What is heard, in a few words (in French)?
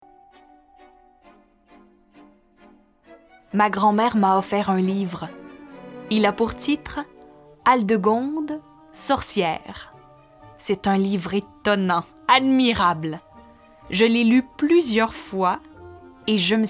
narration